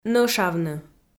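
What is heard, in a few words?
"нӧшавны" Komi-Zyrian, female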